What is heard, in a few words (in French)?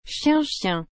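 "chien chien", French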